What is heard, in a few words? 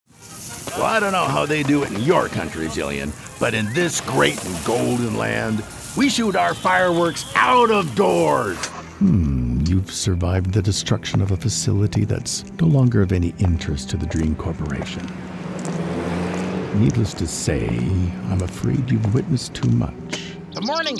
Animation